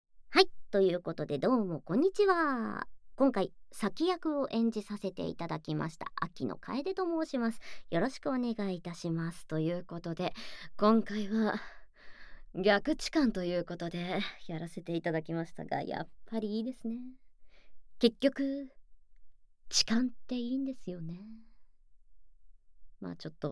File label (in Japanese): フリートーク